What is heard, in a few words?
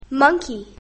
monkey, english basic sounds; grade1